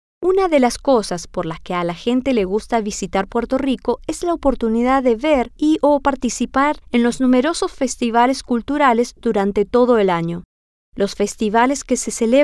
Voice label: Spanish; fiestas Patronales